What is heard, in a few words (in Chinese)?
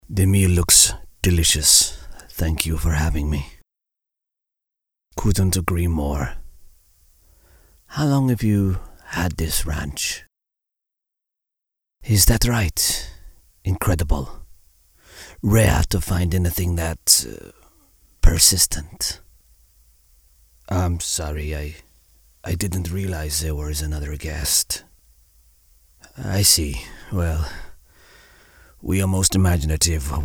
外籍英男 人物模仿